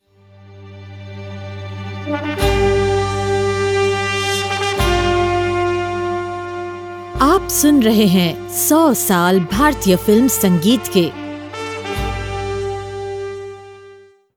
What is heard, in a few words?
Voice Over